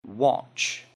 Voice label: "watch", English; Jewelry and Cosmetics